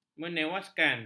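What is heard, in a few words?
"menewaskan", Malay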